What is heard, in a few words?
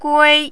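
"gui", first tone, Mandarin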